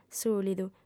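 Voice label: "sùlidu", female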